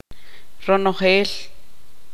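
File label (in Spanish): "todos", Tz'utujil, female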